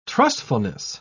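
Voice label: "trustfulness", British English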